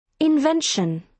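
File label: "invention", British English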